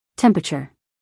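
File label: "temperature", American English, female; everyone-can-use-english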